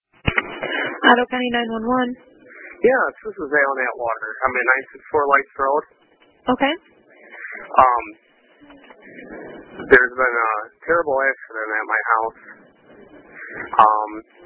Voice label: 911 Call; Ottawa County Sheriff's Office